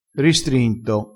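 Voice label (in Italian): "ristrinto", dialettale, crespinese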